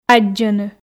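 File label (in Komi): "аддзӧны", Komi, female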